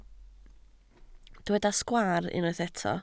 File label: "sgwaar", Welsh